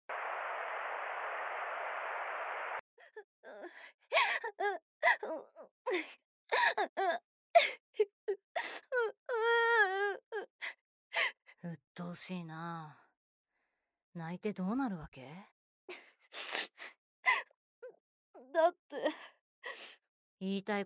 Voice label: 愛のささやき; 夏の少年

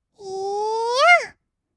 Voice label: いーーーぇあ, kawaii voice; ASMR; 1200 kawaii voice!!; 音ゲー

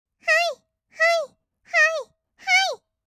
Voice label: はいはい, kawaii voice; 合いの手; 1200 kawaii voice!!; ASMR

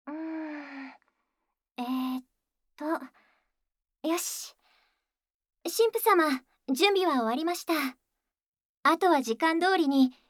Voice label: ボイスオンリー, ASMR; クララは、神父さまに与えられる罰で感じてしまっていますっ

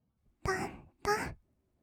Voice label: "とんとん", kawaii voice; 1200 kawaii voice!!; 色々なワンショット

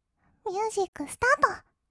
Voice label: ミュージックスタート, kawaii voice; 音ゲー

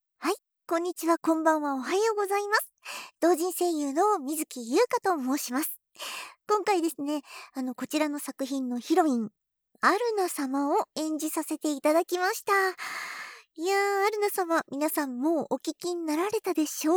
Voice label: フリートーク!; SE無し

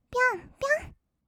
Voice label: "ぴょんぴょん", kawaii voice; ASMR; 色々なワンショット

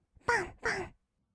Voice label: "ばんばん", kawaii voice; 1200 kawaii voice!!; 色々なワンショット

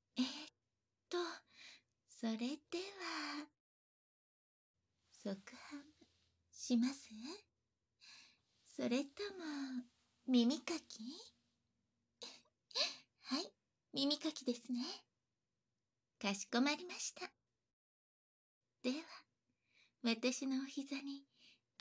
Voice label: 耳かきタイム, ASMR

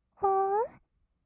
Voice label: "おう", kawaii voice; 1200 kawaii voice!!; 色々なワンショット

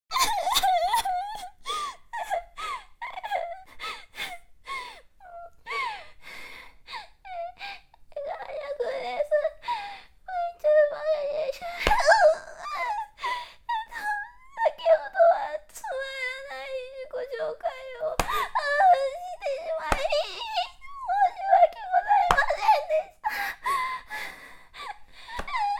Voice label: 自己紹介号泣フル音声のみ

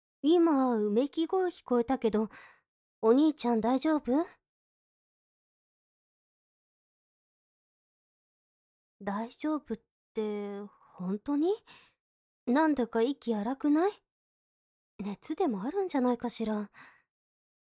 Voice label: ママ ボイス のみ

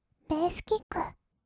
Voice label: "ベースキック", kawaii voice; ASMR; 1200 kawaii voice!!; 音ゲー

